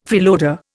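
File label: "freeloader", Indian English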